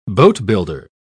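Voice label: "boatbuilder", British English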